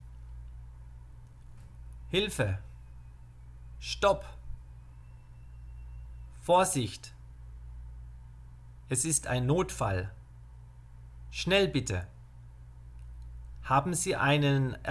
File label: German lesson emergency; German phrasebook